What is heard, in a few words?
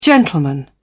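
"gentleman", British English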